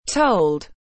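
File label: "Told", English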